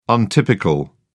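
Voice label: "untypical", English, British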